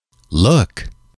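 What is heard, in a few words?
"look", English, male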